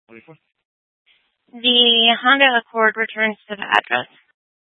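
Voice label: Tempe police department, scanner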